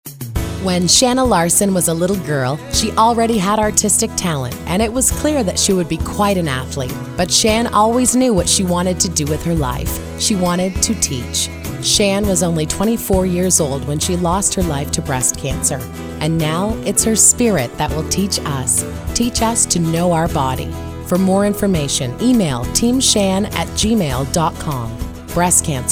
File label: radio; TeamShan